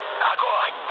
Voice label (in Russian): attack position, mood low, speech ru; War Thunder